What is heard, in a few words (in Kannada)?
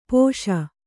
"ಪಾಶಿ", Kannada